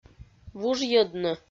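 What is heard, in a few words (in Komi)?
"вужйӧдны", Komi-Zyrian, female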